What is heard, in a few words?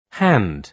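"hand", British English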